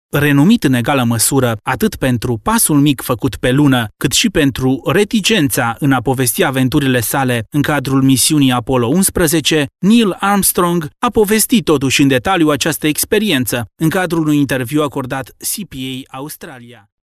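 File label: Romanian, male, narration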